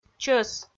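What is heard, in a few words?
"чӧс", Komi, female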